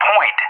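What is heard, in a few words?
"point", English; JTAC; Digits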